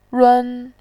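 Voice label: "run", Mandarin Chinese, first tone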